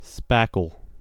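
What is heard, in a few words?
"spackle", US English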